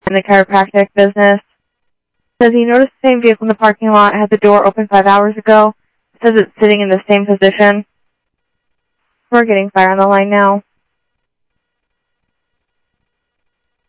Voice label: South Scottsdale Sheriff, scanner audio